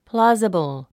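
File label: "plausible", English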